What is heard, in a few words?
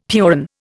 "piäon", Indian; Dictionary